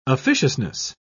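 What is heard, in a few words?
"officiousness", British English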